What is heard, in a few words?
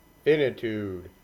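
"finitude", US English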